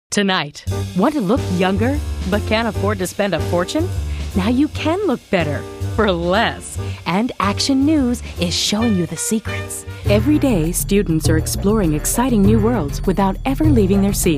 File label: 90's Commercial